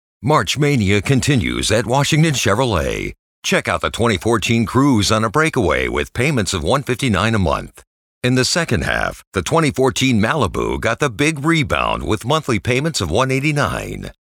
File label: AUTOMOTIVE Chevy, voice over; Washington